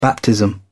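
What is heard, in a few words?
"baptism", British English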